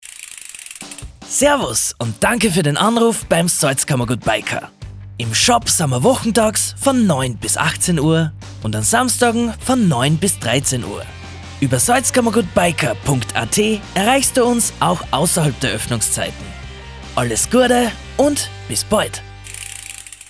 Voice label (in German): Telefonansage Biker Österreichisch; Salzkammergut